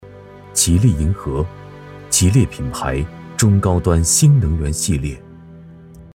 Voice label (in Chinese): 男 广告 大气汽车; 吉利银河